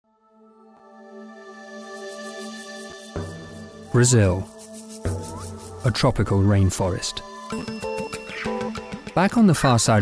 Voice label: Wildlife Narration